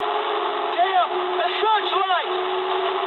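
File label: English searchlite mood low; speech; War Thunder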